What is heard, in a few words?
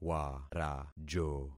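"warajo", concatenated syllables; Affixing experiment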